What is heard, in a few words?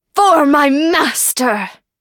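level up, English; cookie run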